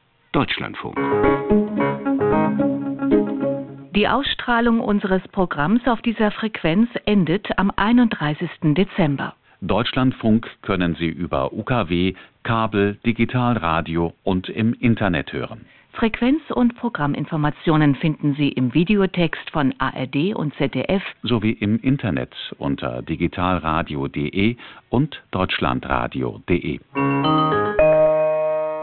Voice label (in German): DLF 549 kHz absage websdr; deutschsprachigen Rundfunk auf Mittelwelle